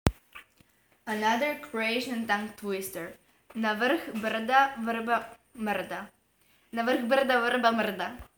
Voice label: Croatian